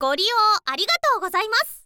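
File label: "goriyou arigatou", Japanese, girl; voice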